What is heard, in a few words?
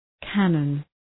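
"cannon", English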